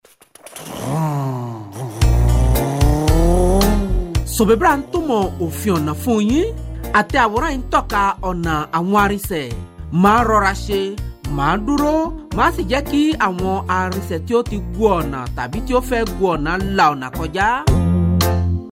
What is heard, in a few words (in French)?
CODE DE LA ROUTE YORUBA